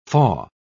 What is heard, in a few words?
"faugh", pronounce